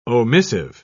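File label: "omissive", English, British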